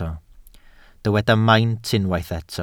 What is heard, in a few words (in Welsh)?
"maint" Welsh